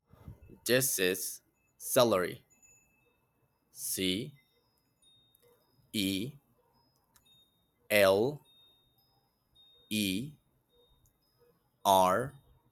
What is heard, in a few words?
"celery", vegetables